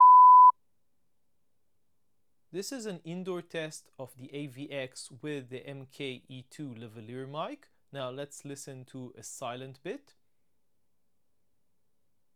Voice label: indoors lav; AVX